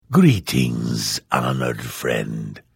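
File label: Hello, Vo; Morrowind GOTY GOG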